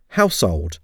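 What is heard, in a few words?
"household", English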